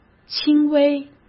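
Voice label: "轻微", Mandarin Chinese; hsk; chinese-flashcards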